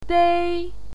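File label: "dei", Mandarin Chinese, first tone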